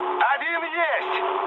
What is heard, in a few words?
player killed enemy, mood med, speech ru; War Thunder